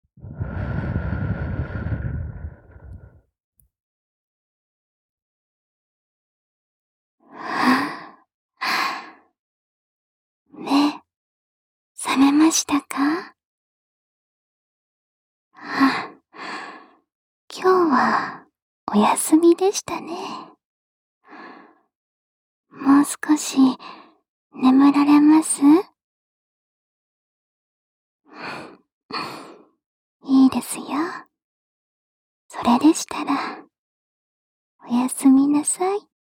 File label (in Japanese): スマホ用目覚ましボイス; SE有り; 母性愛にめざめたお嬢様エルフとのあまとろ発情新婚生活; KU100バイノーラル